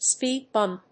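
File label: "speed bump", English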